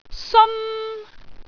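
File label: "seon1", Cantonese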